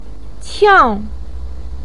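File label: "qiang", Mandarin pinyin, tone 4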